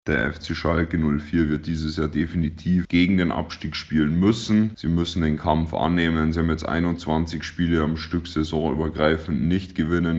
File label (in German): Radio Umfrage